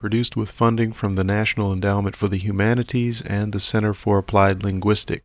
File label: Conversation with 59 year old white male Arkansas; american english dialect